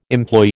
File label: "employ", American